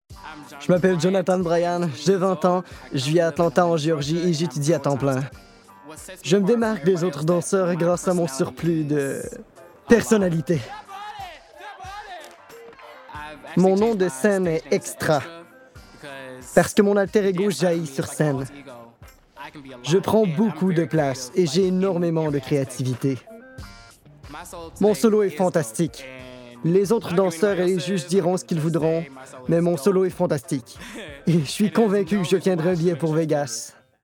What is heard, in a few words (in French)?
Surimpression Vocale